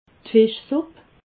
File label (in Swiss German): "fischsupp", alsace